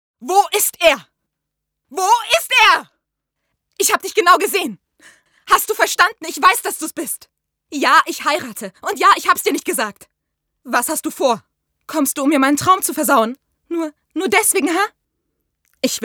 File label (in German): Synchron verzweifelt